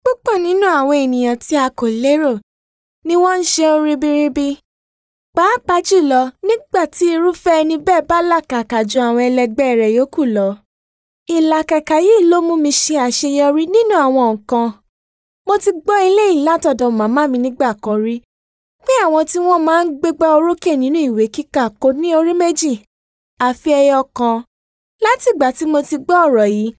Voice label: Yoruba F Video

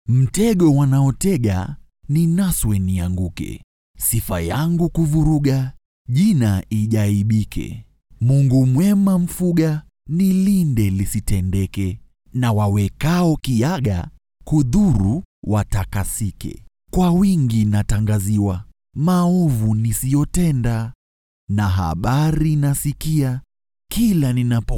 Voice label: Kiswahili, Poem

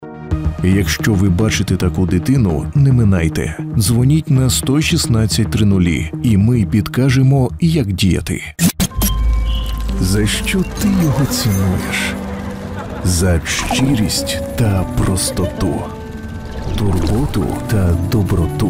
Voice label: Ukrainian M commercial

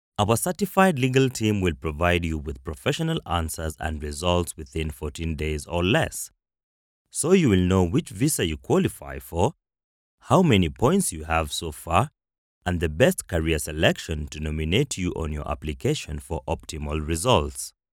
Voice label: Swahili English M

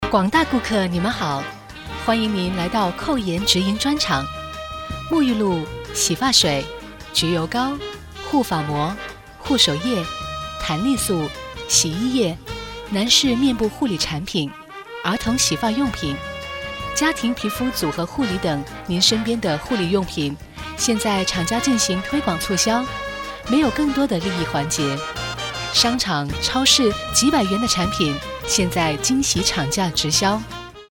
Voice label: Mandarin female Female40

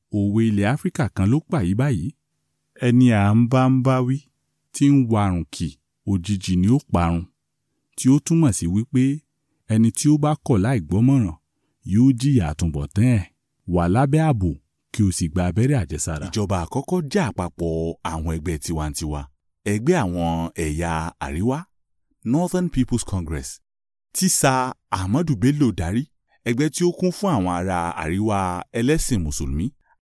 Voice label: YORUBA M

